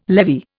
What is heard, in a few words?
"levy", Indian